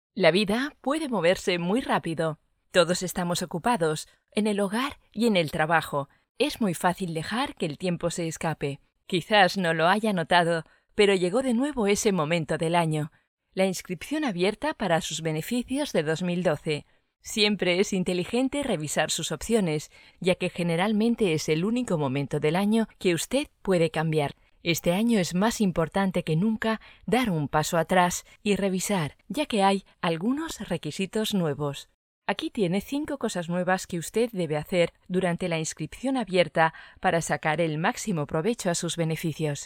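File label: Spanish female e-learning voice, es; Aramark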